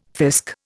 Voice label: "fisk", Indian